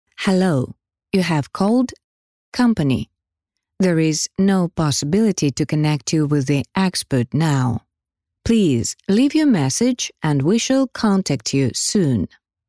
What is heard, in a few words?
ivr eng